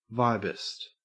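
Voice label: "vibist", Australian English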